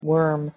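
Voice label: "worm", English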